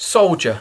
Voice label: "soldier", English

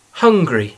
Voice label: "hungry", English

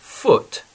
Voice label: "foot", English

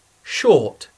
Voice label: "short", English

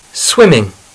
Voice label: "swimming", English; sporty a hry

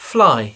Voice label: "fly", English; zvirata v lese

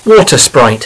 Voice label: water sprite, English

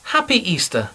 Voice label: happy easter, English

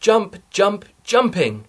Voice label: "jump jump", English

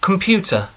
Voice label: "computer", English; skola; trida

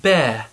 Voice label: "bear", English

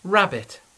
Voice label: "rabbit", English; zvirata v lese

